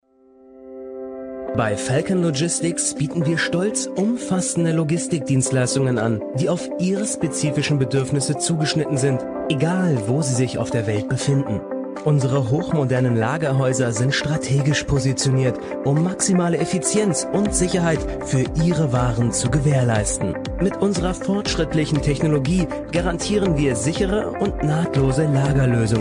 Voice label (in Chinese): 德语男声